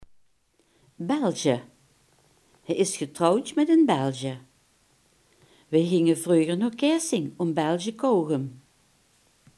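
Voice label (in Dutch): "belgische" Limburgish dialect word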